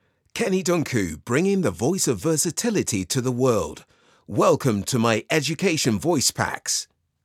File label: Education Branded Voice Packs, voiceover